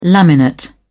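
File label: "laminate", British English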